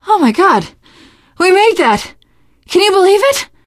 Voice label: hurrah, teen girl, survivor voice; source engine; left 4 dead 2